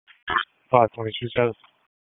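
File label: Police Downtown District Dispatch, p25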